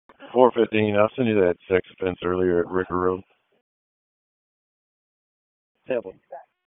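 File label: Police District Dispatch, p25; Raleigh Police Southeast District Disp; wake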